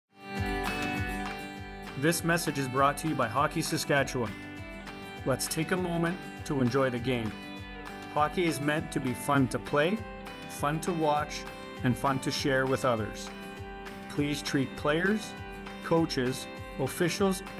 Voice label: rink announcement diffuse tension, Public Address (PA) Rink Announcements; Rink Safe; safe sport